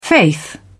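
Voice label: "faith", British English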